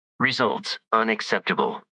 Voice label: upset; fertig classic games